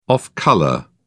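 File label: "off-colour", English, British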